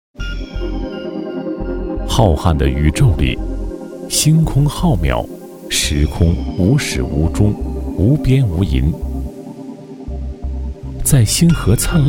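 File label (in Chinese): 男 纪录片（人文地理）; 纪录片 黄金纬度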